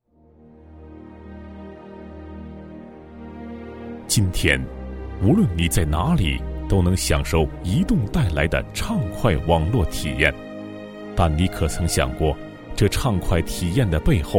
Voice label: 男 专题 最美移动工匠 人物, 国语男声配音

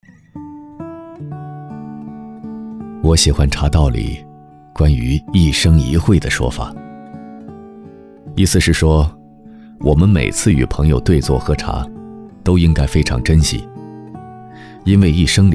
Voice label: 男, 文学, 自然, 走心; 林清玄; 一生一会

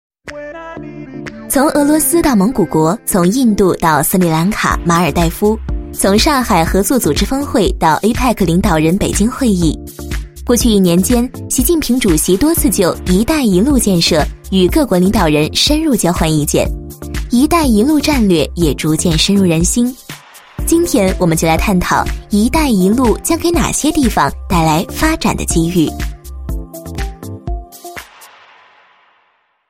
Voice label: 女19 专题 自然优雅 导游感, 国语女声配音; 一带一路建设

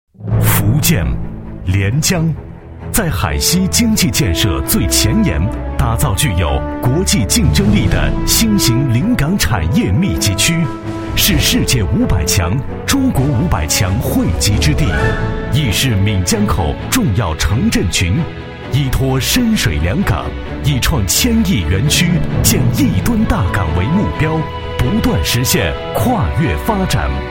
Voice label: 男 地区宣传 招商片录音; 可门经济开发区

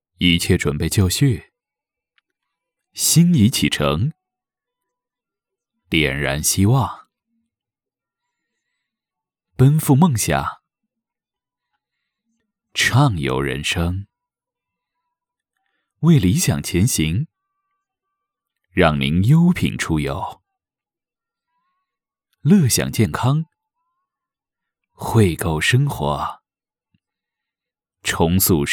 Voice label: 大牌广告; 优乐汇

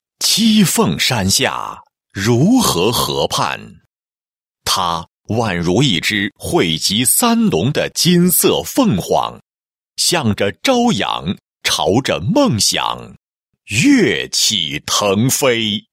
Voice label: 男-企业-小额贷款公司, 配音案例; 栖凤

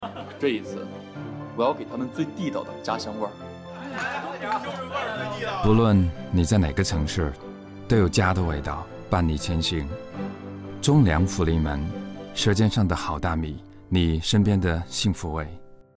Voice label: 英男; 家乡的味道

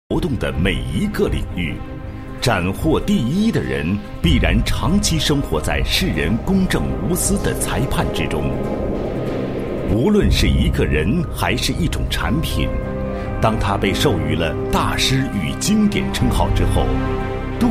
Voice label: 男 企业 企业宣传简介, 大气抒情; 中至信企业宣传简介

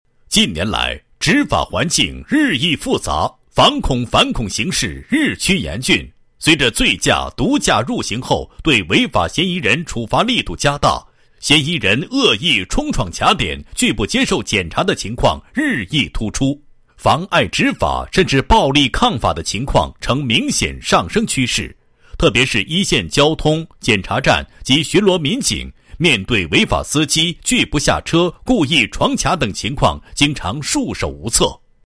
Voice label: 男 产品介绍; 车辆风挡遮挡器

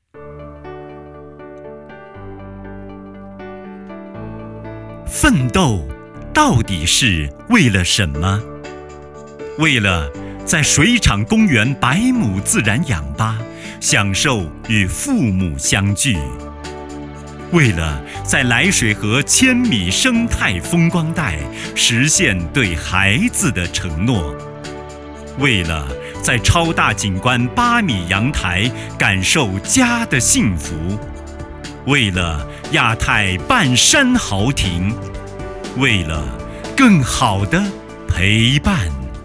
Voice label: 地产广告配音

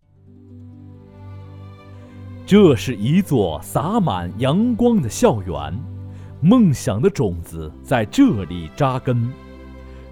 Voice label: 男 专题, 国语男声配音, 浑厚; 沙雅县第三小学

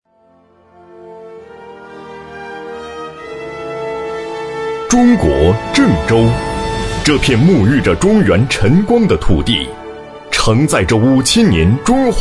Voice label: 男80 粮食批发市场, 国语男声配音; 郑州粮食批发市场; 男80央视农广

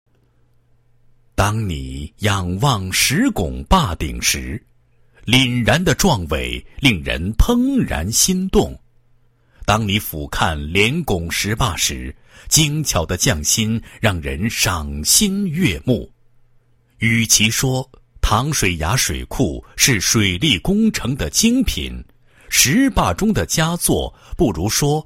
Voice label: 男 地区介绍 宣传介绍; 淌水崖水库宣传介绍

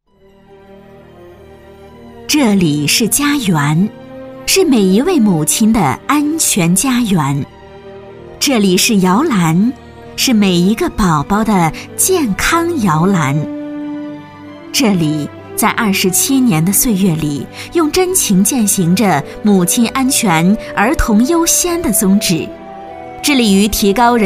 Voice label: 女 专题 市妇保院宣传片 大气, 国语女声配音

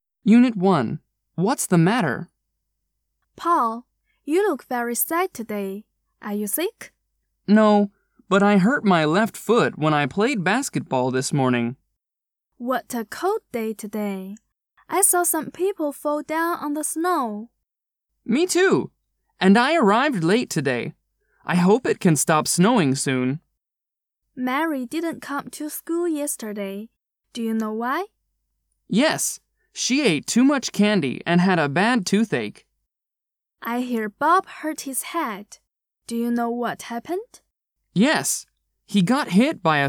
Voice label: 男女对话

